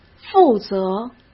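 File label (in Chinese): "负责", Mandarin Chinese; hsk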